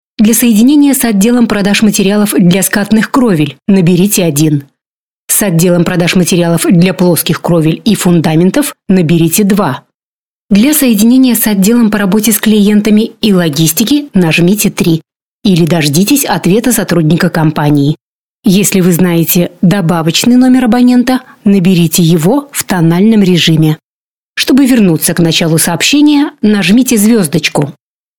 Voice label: ivr